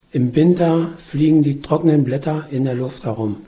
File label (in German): sudmarkisch